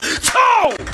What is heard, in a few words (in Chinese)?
骂人 "操"